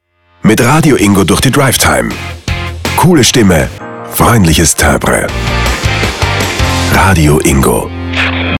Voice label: Station ID